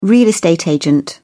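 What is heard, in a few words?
"real estate agent", British English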